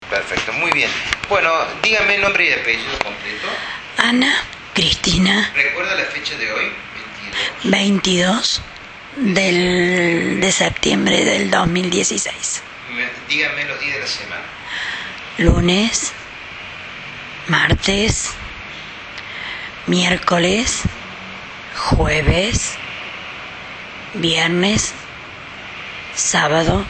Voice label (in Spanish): PRE OPERATORIO, Voice before surgery, Papilomatosis, Female. 65 years old; Score de Severidad de Derkay: 9; Archivo de voz. Pre operatorio